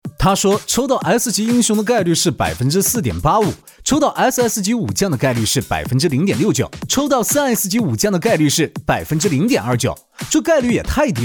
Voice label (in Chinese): 信息流普通话; 自选箱